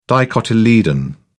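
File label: "dicotyledon", British English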